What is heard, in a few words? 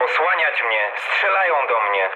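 aircraft damaged, mood low, Polish speech; War Thunder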